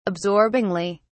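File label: "absorbingly", British English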